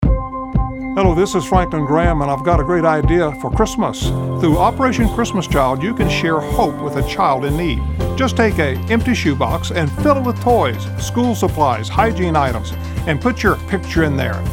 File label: radio mix; OCC; Franklin Graham